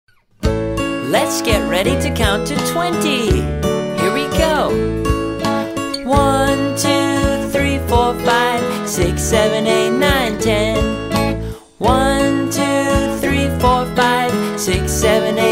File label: English numbers; اعداد انگلیسی